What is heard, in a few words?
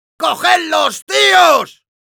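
battle cry, Spanish; Demoman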